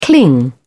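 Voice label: "cling", British English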